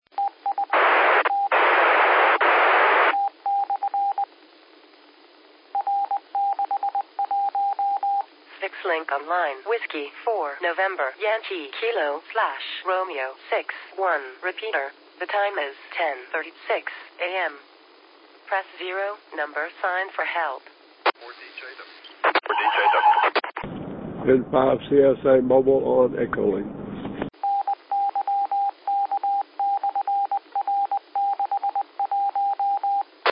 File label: qso rec simplex logic